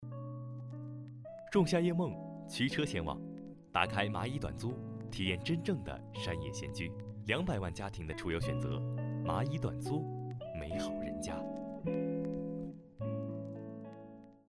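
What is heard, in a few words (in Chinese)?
广告有感情; 蚂蚁短租小片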